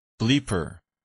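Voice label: "bleeper", English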